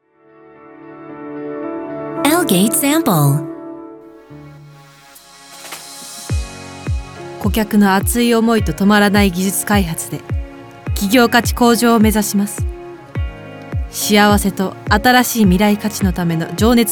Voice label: 일본 여 기본톤

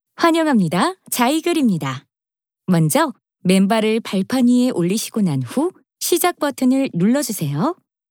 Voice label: 음성 안내; 자이글 온

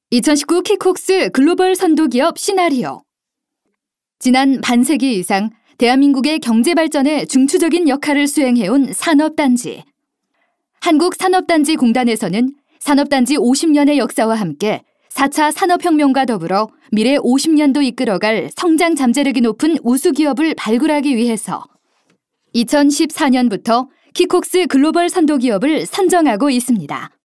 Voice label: 내레이션 기업 PPT 힘있는 희망찬

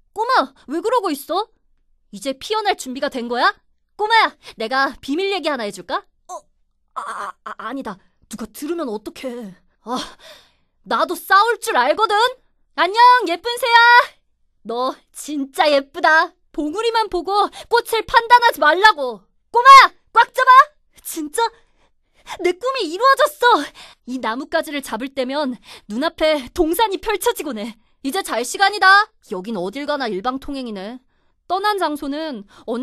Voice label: 10대 남아 활발

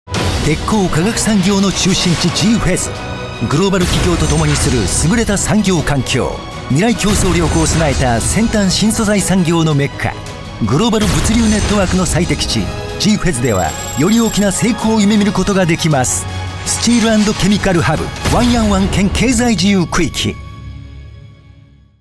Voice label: 일본 남 강한 톤 홍보; 광양만권경제자유구역청

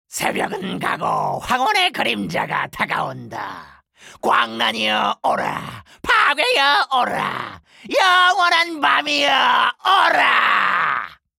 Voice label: 게임샘플 광기 광신도

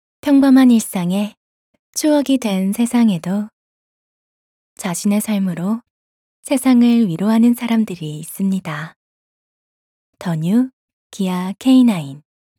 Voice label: 희망적인 톤

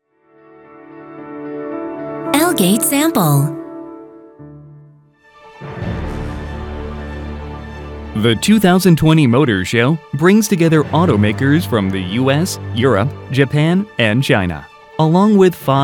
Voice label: 영어 남 기본 톤

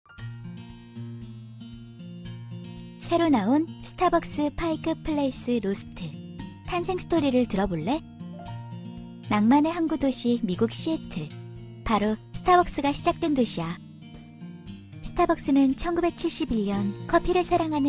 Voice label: 편안한 자연스러운; 스타벅스

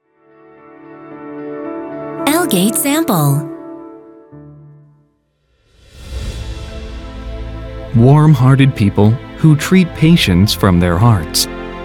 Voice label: 영어 남 차분한 톤

